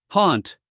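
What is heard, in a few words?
"haunt", American English, male; everyone-can-use-english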